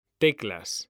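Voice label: "teclas", Spanish, male; mekanta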